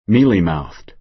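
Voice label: "mealy-mouthed", American English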